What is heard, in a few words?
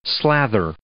"slather", English